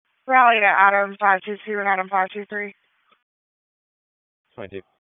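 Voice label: Raleigh Police Southeast District Dispatch, p25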